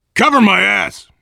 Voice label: cover me, voice, biker; Left 4 Dead 2; left4dead2 dlc3; survivor